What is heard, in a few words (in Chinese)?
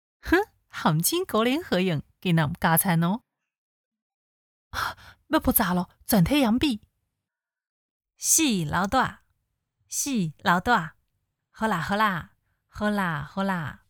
女主女大学生